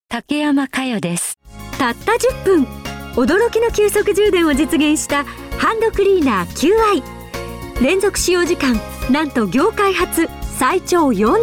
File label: female tv informacial